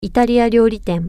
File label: italian restaurant, japanese